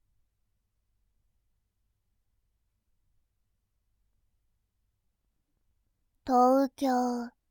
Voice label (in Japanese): 「東京」, ASMR